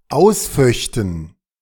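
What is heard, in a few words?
"ausföchten", German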